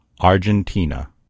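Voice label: "argentina", English; gcompris; geography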